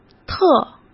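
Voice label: "特" Mandarin Chinese; hsk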